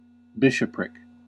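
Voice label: "bishopric", American English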